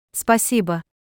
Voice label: Russian Thank you; Greetings

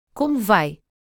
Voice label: Portuguese How are you; Greetings

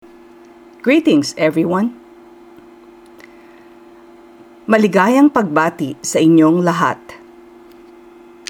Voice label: Tagalog Greetings everyone

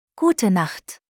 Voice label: German Good night; Greetings

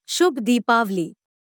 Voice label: Hindi Happy Diwali; Greetings

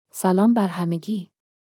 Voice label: Farsi Greetings everyone

